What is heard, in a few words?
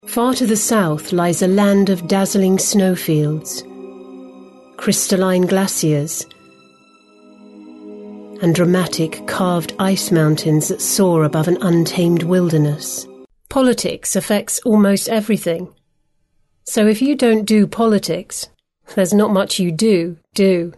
narration